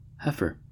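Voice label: "heifer", US English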